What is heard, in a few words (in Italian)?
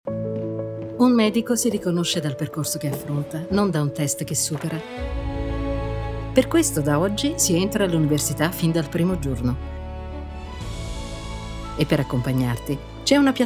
spot radio; mur